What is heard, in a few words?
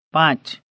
Gujarati